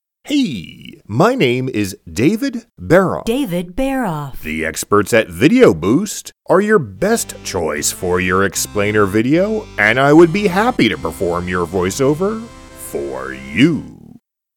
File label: American English; internationale voiceover